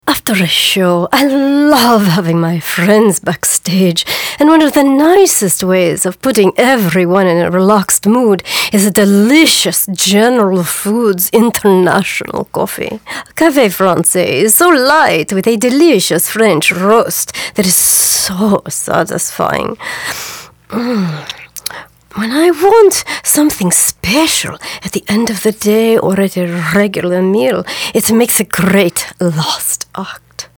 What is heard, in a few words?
Accents